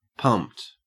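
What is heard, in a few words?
"pumped", Australian English; pronunciations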